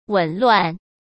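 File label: "紊乱", Mandarin Chinese; hsk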